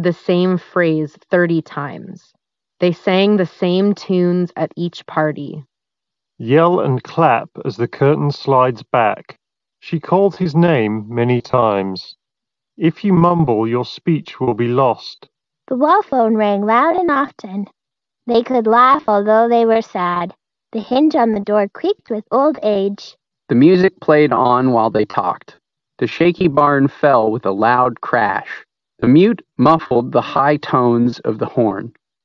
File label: Ideal microphone sample; 1More Comfobuds 2